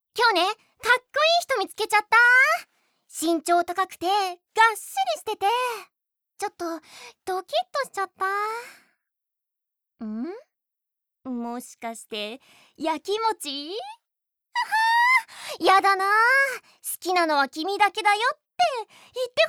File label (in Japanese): あざとい小悪魔; KoAkuma